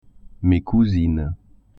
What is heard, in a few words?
"cousines", French